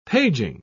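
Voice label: "paging", British English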